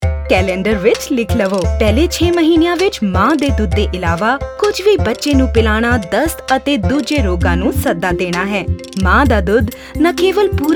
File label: Exclusive Breastfeeding Punjabi; poshan